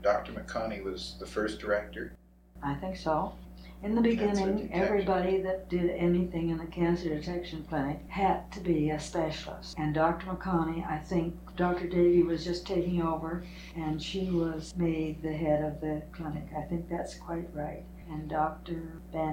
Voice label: Interview, open reel; clipped clean nonoise